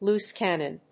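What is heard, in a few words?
"loose cannon", English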